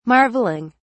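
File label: "marvelling", British English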